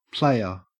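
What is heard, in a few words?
"playa", Australian English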